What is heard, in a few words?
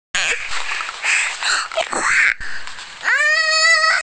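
"hua1", Mandarin Chinese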